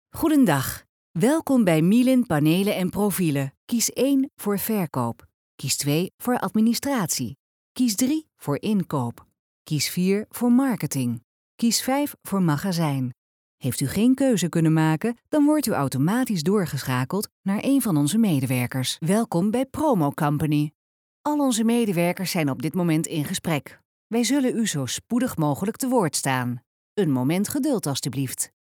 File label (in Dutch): Vrouw